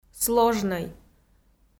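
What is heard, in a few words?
"сложнӧй", Komi-Zyrian, female; kpv audio dict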